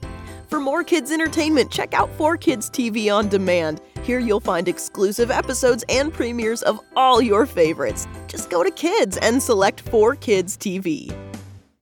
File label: kid friendly fun upbeat; 4KidsTV